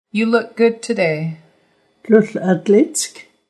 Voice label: You look good today, Nuu-chah-nulth language phrases